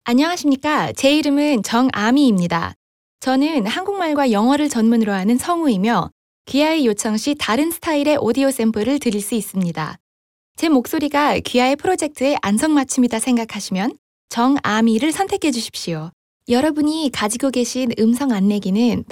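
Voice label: Korean, Army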